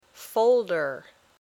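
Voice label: "folder", English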